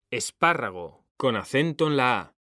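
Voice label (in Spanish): "espárrago", Spanish; mekanta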